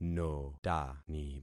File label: "notani", concat syllables; Affixing experiment